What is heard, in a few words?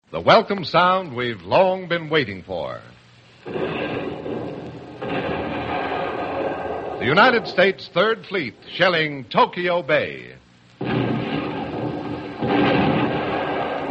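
public service announcement save paper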